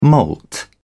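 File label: "moult", British English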